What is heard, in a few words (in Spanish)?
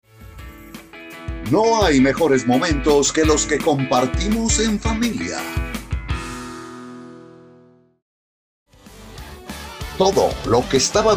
COMERCIALES VARIOS